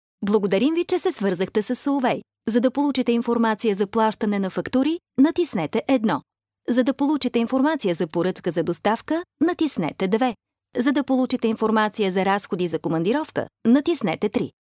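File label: Bulgarian, female, voice over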